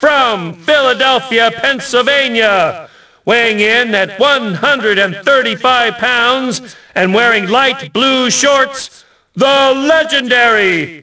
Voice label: announce, ENGLISH; Rocky; XBOX